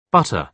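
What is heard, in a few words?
"butter", British English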